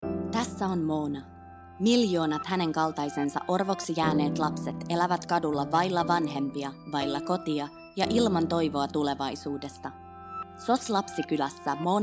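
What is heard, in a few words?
FI General, Finnish; GoLocalise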